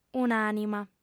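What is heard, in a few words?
"un'ànima", lingua sarda, female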